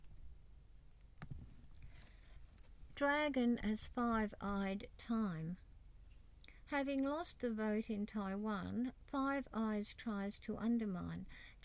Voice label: reading; dragon as five-eyed time; golden bridge; quemar press; jennifer maiden